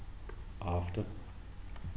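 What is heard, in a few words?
"after", British English, male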